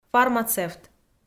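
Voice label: "фармацевт", Komi, female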